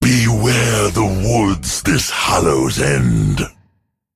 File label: Male Drust Emote HAPPY HALLOWEEN